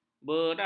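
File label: "beram", Malay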